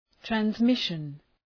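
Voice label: "transmission", English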